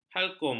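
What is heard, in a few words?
"halkum", Malay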